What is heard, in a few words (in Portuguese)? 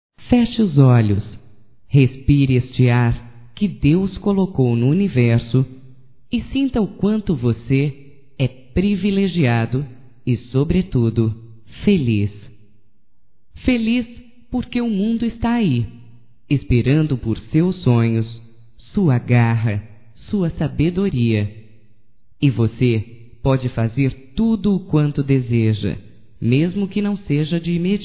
ESPERANÇA(F), Telemensagens, fem; Amizades; Telemensagens 2017